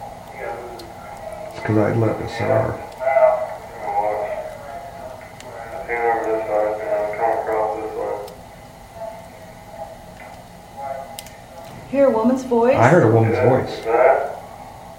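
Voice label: womens voice in the background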